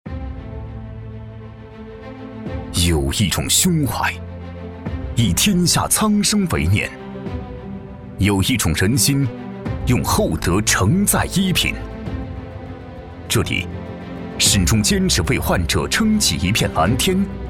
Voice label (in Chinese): 男, 医院宣传配音稿